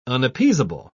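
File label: "unappeasable", British English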